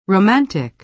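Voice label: "romantic", American English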